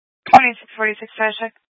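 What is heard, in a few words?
Sheriff Dispatch, Scanner audio recording archive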